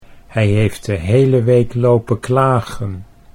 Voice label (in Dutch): "hij heeft de hele week lopen klagen", Dutch